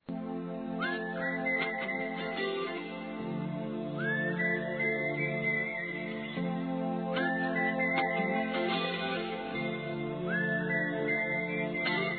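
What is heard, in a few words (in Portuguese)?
PARABÉNS VOVOS CORUJAS, feminino, Telemensagens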